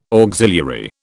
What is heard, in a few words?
"auxiliary", British